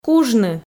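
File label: "кужны", Komi, female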